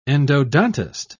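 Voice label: "endodontist", British English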